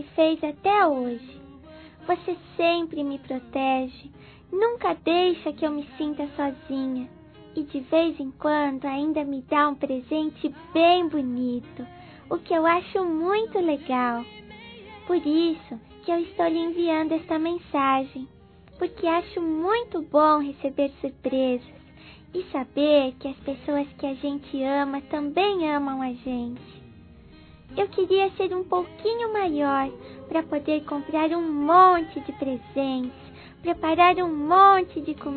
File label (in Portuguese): Aniversário Mãe Infantil Feminino; Telemensagens